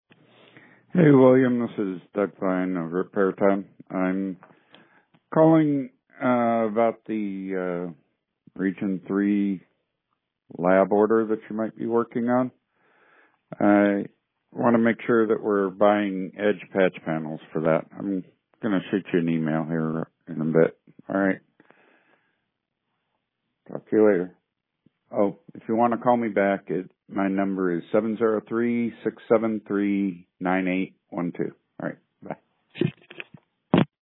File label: Voicemail